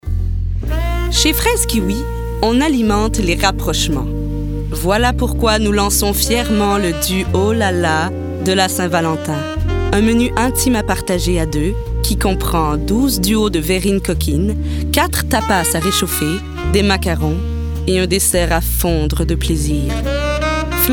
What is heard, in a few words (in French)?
Pub Charmante Sensuelle Fâchée